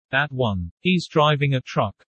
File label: fast; assessment